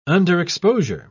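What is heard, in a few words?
"underexposure", British English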